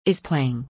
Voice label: is playing, en